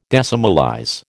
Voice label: "decimalize", American